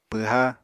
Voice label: "pwha", Apatani